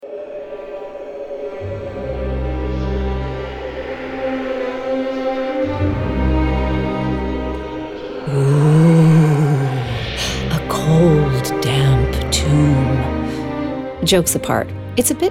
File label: audioguia eng; necropolis; mausoleu amb cripta